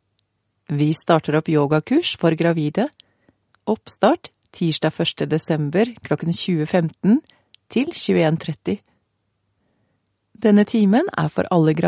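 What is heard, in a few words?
Norwegian, female, narration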